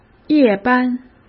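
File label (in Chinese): "夜班", Mandarin; chinese flashcards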